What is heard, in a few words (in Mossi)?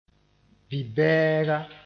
"bi-bɛɛga", moore